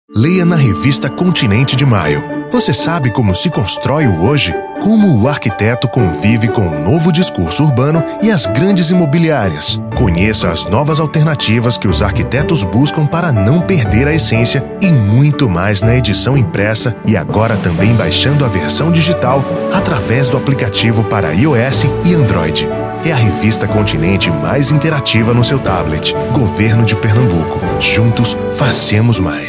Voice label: SPOT; Revista Continente